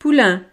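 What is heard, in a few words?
"poulain", French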